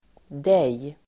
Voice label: "dig", Swedish

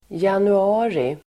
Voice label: "januari", Swedish

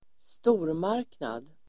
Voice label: "stormarknad", Swedish

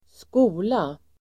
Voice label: "skola", Swedish

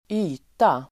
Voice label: "yta", Swedish

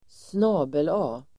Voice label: "snabel-a", Swedish

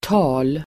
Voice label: "tal", Swedish

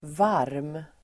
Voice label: "varm", Swedish

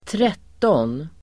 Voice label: "tretton", Swedish

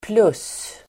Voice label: "plus", Swedish

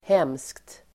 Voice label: "hemskt", Swedish

